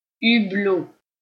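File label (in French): "hublot", French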